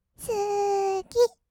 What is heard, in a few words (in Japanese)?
"すき", kawaii voice; 1200 kawaii voice!!; 色々なワンショット